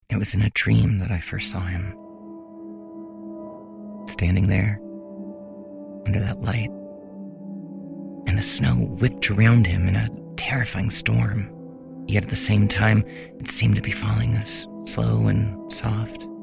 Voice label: english american audiobooks